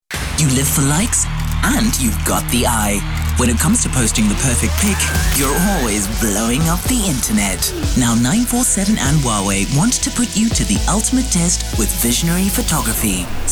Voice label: English Radio Promo; OSM Talent

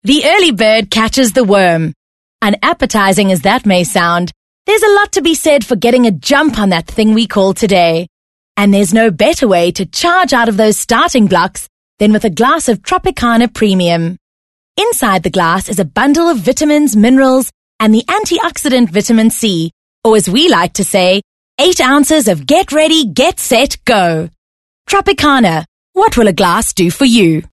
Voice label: English conversational

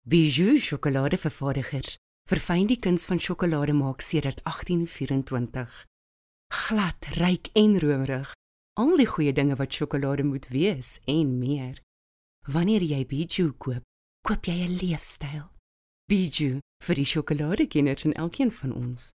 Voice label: Intimate South African Neutral

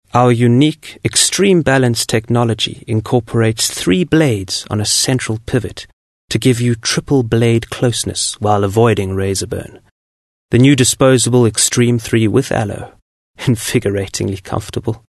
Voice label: English Softsell

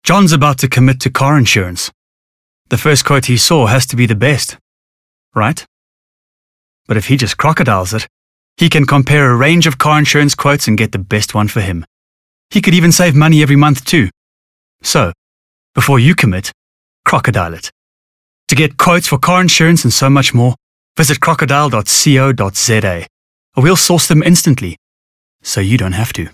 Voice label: English Conversational

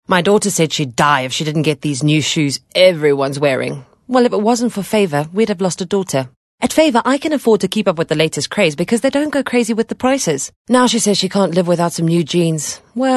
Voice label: English Conversational

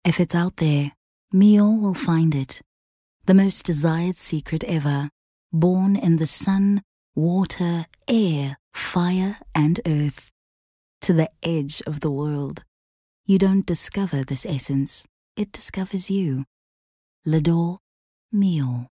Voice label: English Intimate

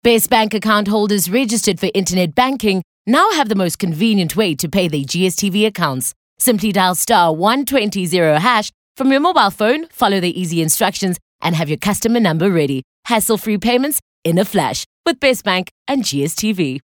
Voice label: English skill promo

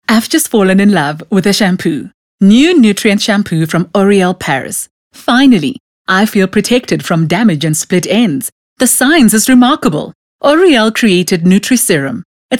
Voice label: English Bright

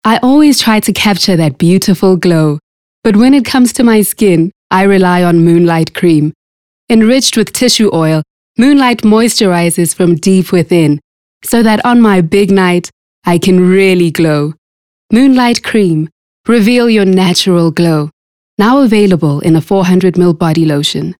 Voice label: English Conversational

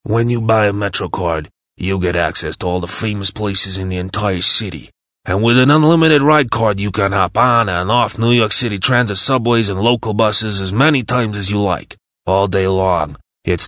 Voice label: English Character Mobster

